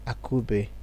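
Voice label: "akube", Nigerian Pidgin, male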